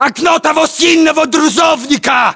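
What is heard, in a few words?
landmark near truck blue, battlechatter, Russian; localized english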